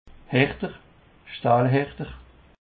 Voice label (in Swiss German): "herter", Alsace